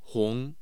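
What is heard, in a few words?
"hong", Mandarin Chinese, tone 2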